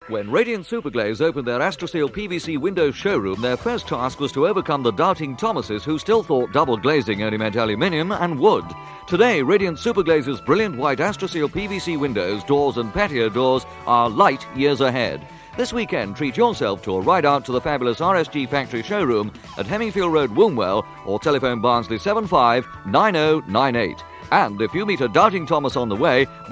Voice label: Radio Hallam Advert; Radiant Superglaze